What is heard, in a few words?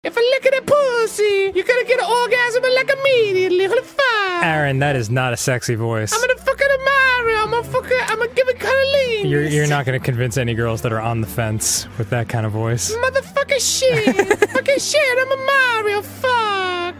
sexy voice; gamegrumps; mario64